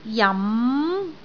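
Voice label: "jam2", Cantonese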